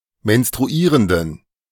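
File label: "menstruierenden", German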